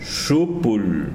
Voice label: "intestinos", tsesungun

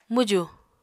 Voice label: "mwjù", Apatani

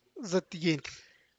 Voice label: zët yeñ, neck bone, Santiago Matatlán Zapotec; hueso del cuello

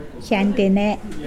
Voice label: toe, Mokilese

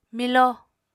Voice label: "milo", Apatani

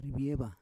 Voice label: "rivieva", Roon

